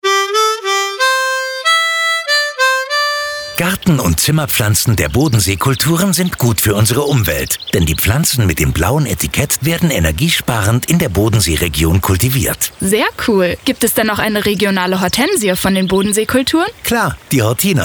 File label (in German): Radiospot; Hortina